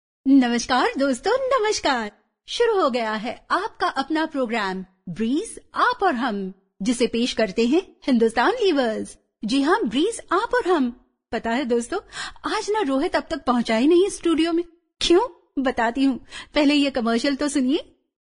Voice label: Hindi Female